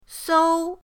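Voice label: "sou1", Mandarin Chinese, first tone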